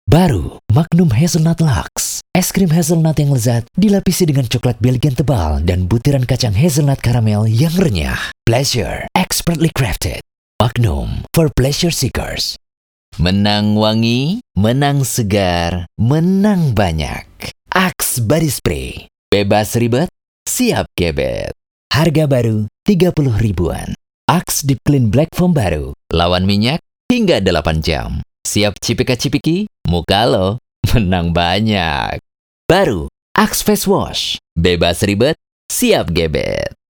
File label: DRY VOICE OVER